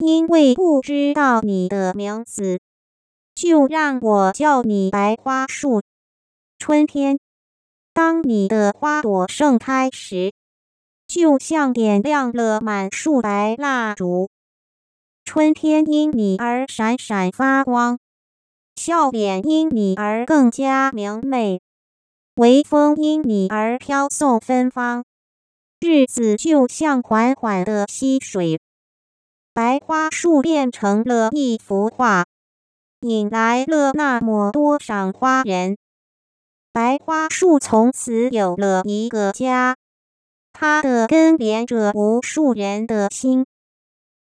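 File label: Synthetic Speech, Mandarin singing voice synthesis, Using linear time mapping and HNM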